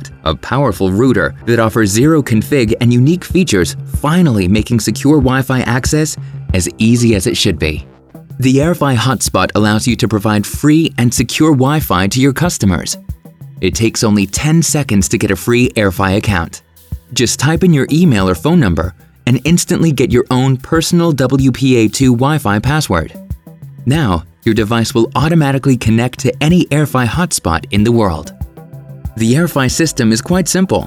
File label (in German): ENGLISCH INTERNATIONAL MALE Erklaervideo IT tech business; Airfy